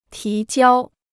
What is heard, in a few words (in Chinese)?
"提交", Chinese